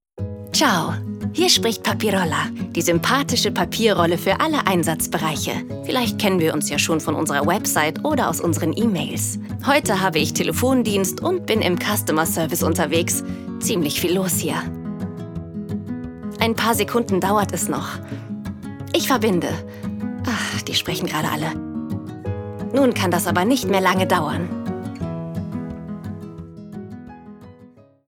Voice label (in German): telefonansage herzlich laechelnd